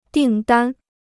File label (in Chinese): "订单", Chinese